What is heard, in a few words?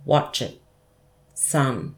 "watyip" son